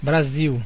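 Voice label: "brazil", Brazilian Portuguese; gcompris; geography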